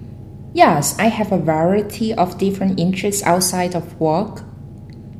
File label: female filler; interviewee